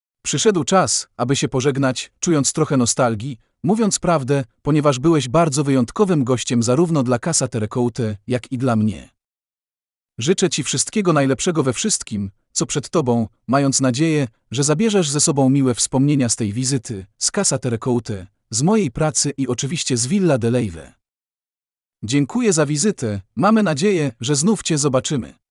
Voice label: Polish